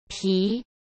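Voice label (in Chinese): "脾", Mandarin; chinese flashcards; hsk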